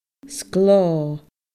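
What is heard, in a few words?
"sgleo", Scottish Gaelic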